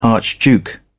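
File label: "archduke", English, British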